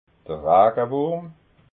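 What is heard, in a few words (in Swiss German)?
"ragawurm", Alsatian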